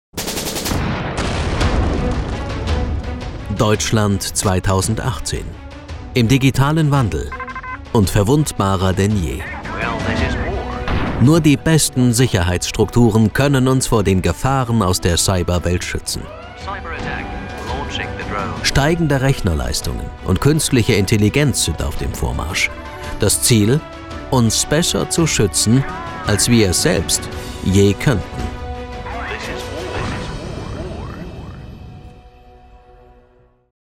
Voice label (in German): sprecher trailer doku; cyber attack